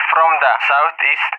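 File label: "from the SE", English, RUSSIA; DCS; Allied Flight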